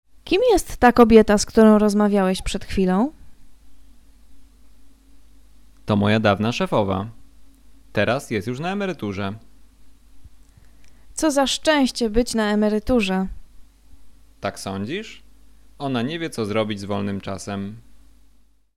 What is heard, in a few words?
conversation; lektorek; firstyear